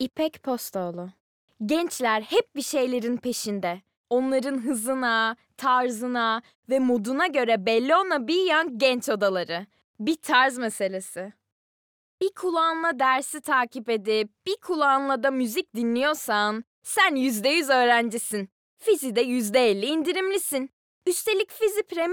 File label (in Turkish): Reklam